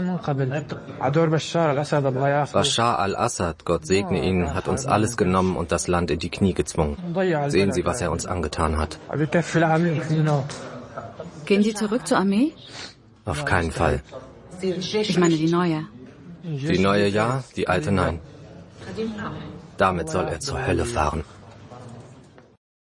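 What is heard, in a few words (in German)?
Verletzter Offizier; Voiceover "Syriens neue Herrscher" Offizier (Arte); Doku, Overlay; German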